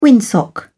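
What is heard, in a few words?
"windsock", British English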